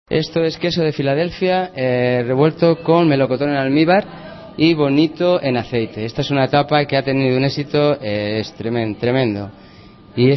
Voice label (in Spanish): noticias